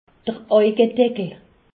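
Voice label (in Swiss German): "augedeckel", Haut Rhin; medecine; paupières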